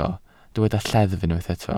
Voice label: "lleddf", Welsh

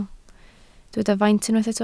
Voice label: "faint", Welsh

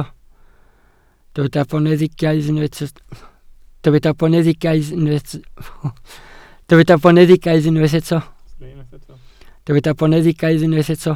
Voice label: "boneddigaidd", Welsh

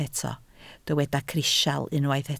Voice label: "crisial", Welsh

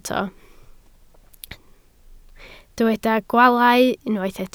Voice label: "gwaalau", Welsh

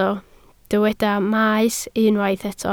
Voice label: "maes" Welsh

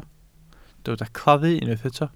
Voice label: "claddu", Welsh

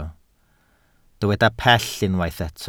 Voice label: "pell", Welsh

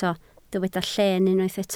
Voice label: "lleen" Welsh

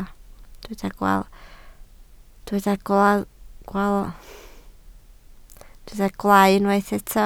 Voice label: "gwaalau", Welsh

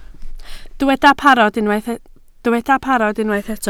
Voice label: "parod" Welsh

